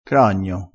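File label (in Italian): "cragno", dialettale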